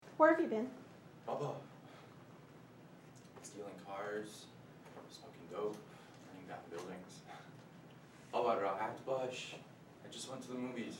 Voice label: Farsi English